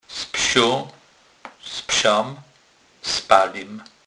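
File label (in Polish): "spsi", Kurpie dialect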